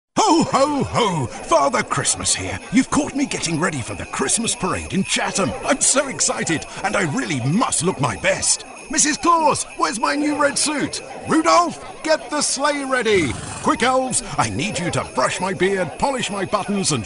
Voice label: santa, Neutral British Confident Voice

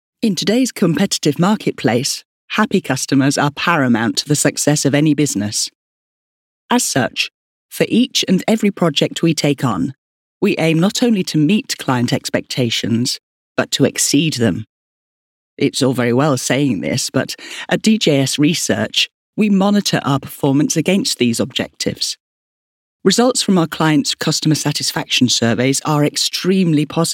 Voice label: corporate

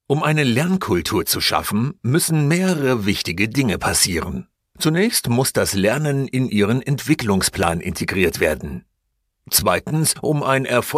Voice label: german voice elearning

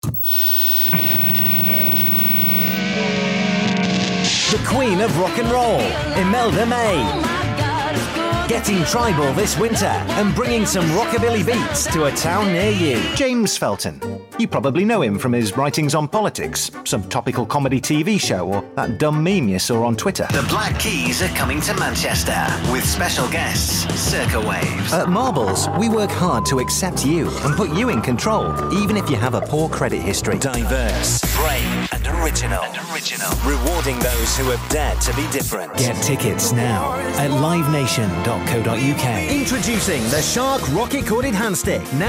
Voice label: voiceover montage

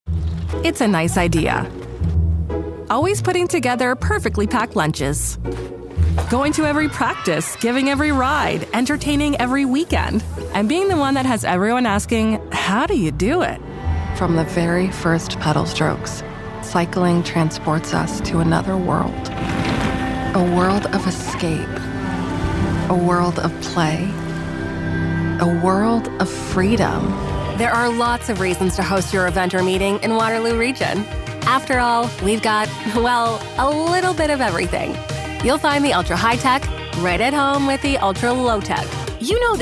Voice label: American voice, commercial

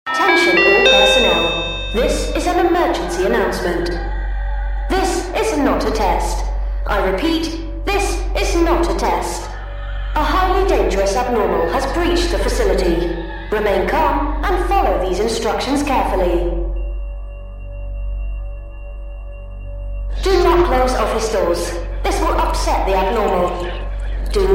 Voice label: scottish warm and comforting

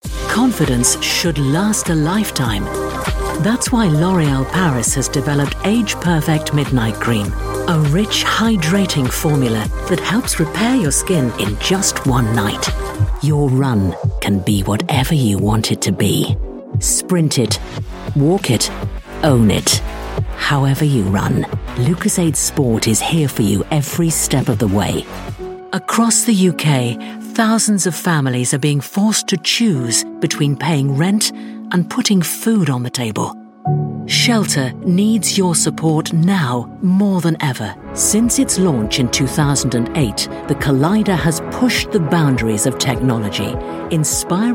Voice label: montage, Warm Authoritative

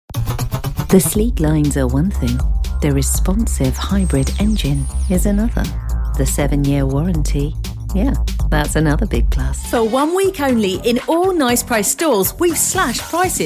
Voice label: turkish voice commercial

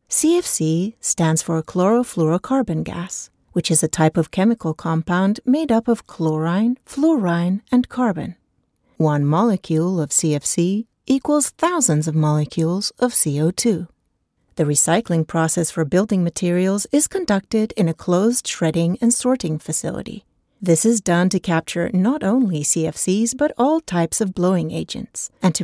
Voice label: swedish, english explainer

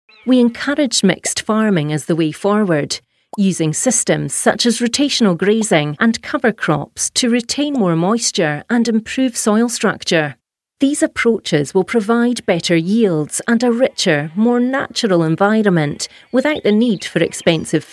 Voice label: elearning

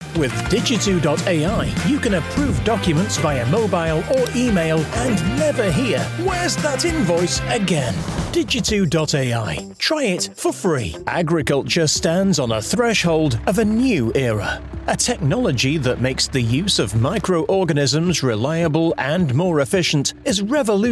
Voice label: commercial

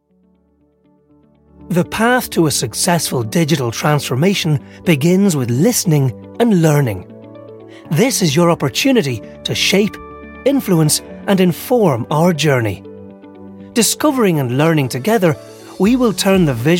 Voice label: irish corporate

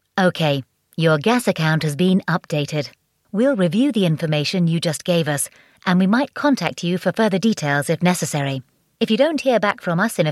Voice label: telephone

